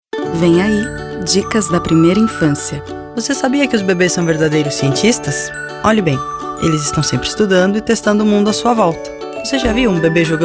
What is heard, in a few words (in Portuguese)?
Spot; Bebês Cientistas